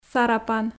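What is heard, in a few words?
"сарапан", Komi, female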